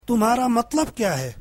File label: urdu What do you mean, urdu